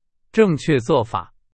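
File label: Chinese, example sentence; hsk2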